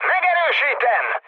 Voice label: player killed enemy, mood high, speech hun